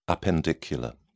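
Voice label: "appendicular", British English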